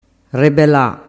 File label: "rebela", dialettu